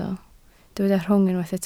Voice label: "rhwng", Welsh

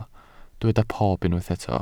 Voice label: "pob", Welsh

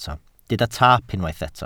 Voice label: "taap", Welsh

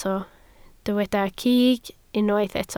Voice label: "cig", Welsh

